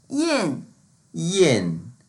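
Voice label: "yin4", Mandarin Chinese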